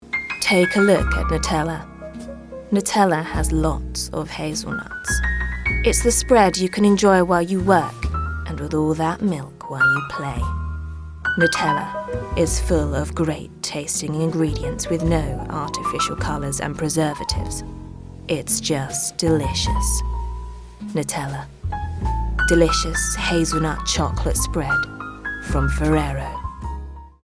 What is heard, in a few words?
sexy voice ad; Nutella